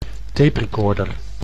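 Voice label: "taperecorder", Dutch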